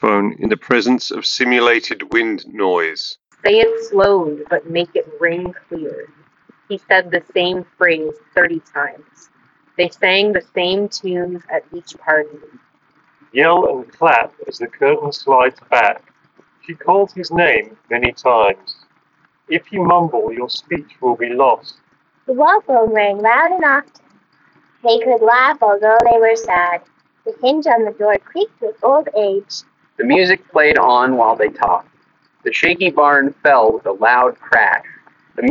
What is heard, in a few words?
CMF BUDS 2 PLUS windy